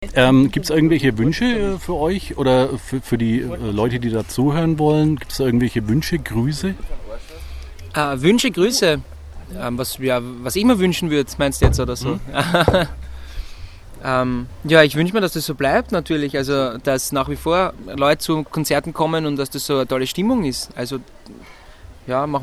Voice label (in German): ANSAGE Mallorca Im Regen